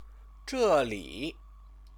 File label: "zheli", Chinese; 这 里; here; place word